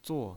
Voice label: "zuo4", pinyin